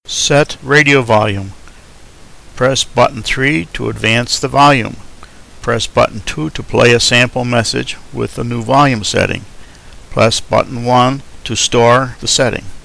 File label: set radio volume, Male English